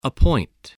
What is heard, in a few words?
"appoint", English